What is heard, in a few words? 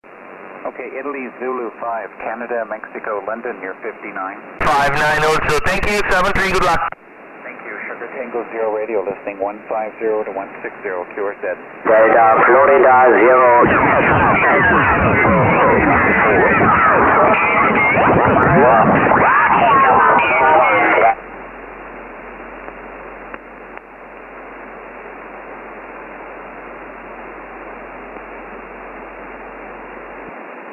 17m SSB